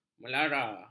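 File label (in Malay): "melara", Malay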